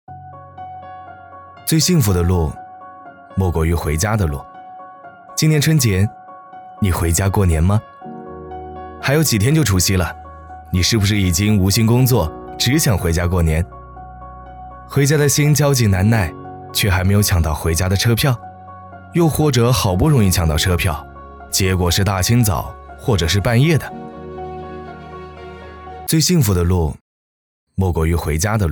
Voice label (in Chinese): 男 亲切旁白 回家过年